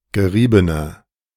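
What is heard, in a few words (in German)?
"geriebener", German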